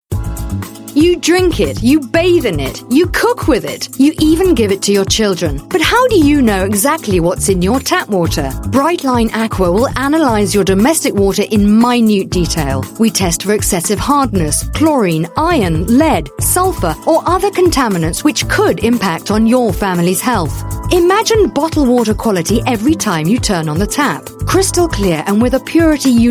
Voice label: UK English Commercial; Brightline Aqua